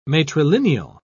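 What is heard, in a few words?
"matrilineal", British English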